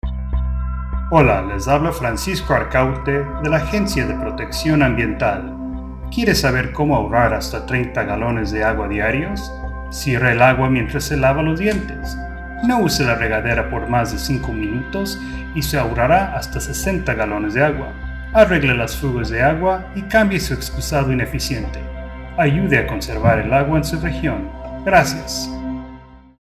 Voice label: water spanish